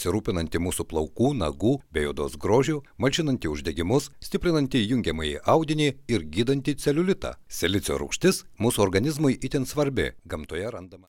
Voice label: lithuanian male